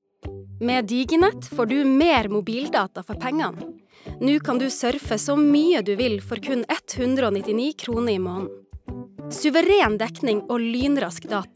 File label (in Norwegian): Reklame, norsk, kvinne